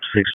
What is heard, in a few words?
FIRE OPS, p25